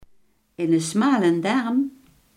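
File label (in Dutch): iemand die lang en smal is, Limburgse gesproken woordenboeken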